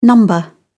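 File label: "number", British English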